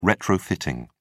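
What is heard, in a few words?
"retrofitting", British English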